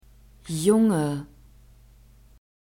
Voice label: "junge", German

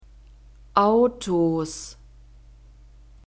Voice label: "Autos", German

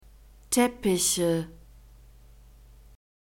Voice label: "teppiche", German

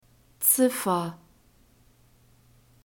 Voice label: "ziffer", German; nouns gender feminine

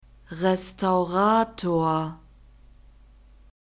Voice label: "restaurator", German; masculine

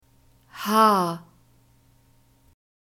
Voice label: "h", German

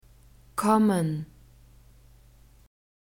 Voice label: "kommen", German

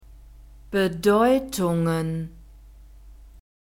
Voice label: "Bedeutungen", German

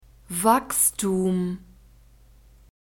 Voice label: "wachstum", German; neuter

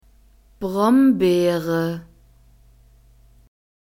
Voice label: "Brombeere", German; fruits

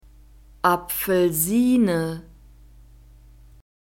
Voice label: "Apfelsine", German; nouns gender feminine

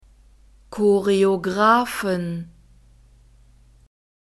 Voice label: "Choreographen", German

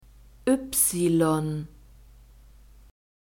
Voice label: "ypsilon", German; alphabet

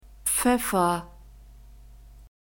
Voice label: "pfeffer", German; phonetic script grouped consonants

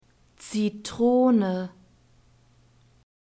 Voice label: "zitrone", German; fruits

